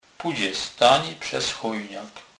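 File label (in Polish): "teni", kurpie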